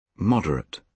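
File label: "moderate", British English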